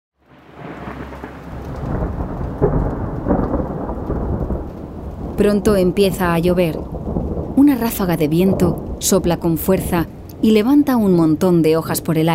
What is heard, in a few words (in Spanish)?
Muestra Audiolibro